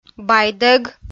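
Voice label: "байдӧг", Komi-Zyrian, female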